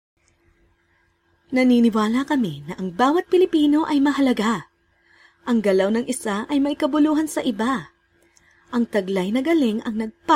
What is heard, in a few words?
Tagalog (Sincere)